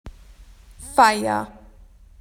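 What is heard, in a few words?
"party", Australian English; PictureDictionary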